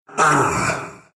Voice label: pain, ru; dota2